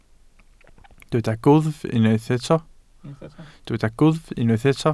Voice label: "gwddf", Welsh

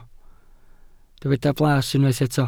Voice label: "blas", welsh

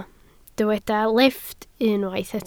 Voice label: "lifft", Welsh

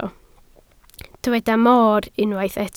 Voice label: "moor", Welsh

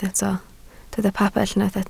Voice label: "pabell", Welsh

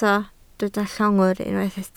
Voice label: "llongwr", Welsh

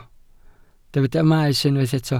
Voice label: "maes", Welsh

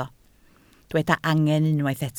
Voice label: "angen", Welsh

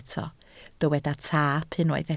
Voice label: "taap", Welsh

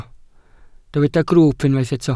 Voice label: "grwwp", Welsh

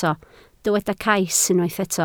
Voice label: "cais", Welsh

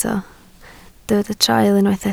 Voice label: "traul", Welsh

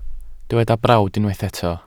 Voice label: "brawd", Welsh

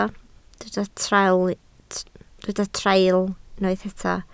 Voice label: "traul", Welsh

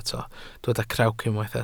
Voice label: "crawc", Welsh

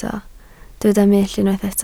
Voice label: "mull", Welsh

